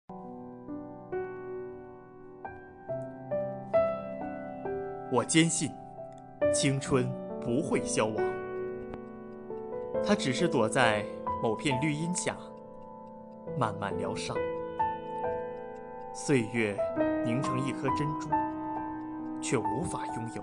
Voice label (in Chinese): 朗诵